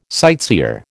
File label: "sightseer", American